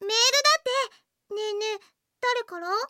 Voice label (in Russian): zvuki s golosom iaponskoi anime devushki